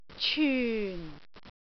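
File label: "cyun4", Cantonese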